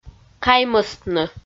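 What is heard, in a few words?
"каймӧстны", Komi, female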